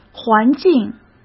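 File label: "环境", Mandarin Chinese; chinese-flashcards; hsk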